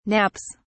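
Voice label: "nappies", British English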